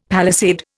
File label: "palisade", Indian; Dictionary